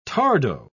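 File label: "tardo", British English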